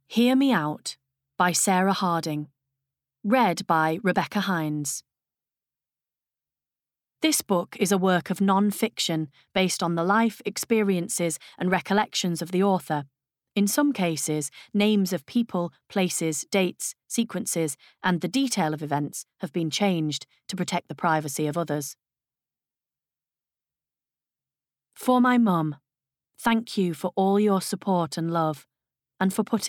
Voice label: Bookread